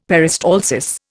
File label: "peristalsis", Indian; Dictionary